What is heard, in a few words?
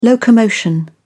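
"locomotion", English, British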